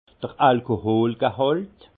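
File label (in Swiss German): "alkoolgehalt", Alsace Haut Rhin; vin; teneur alcoolique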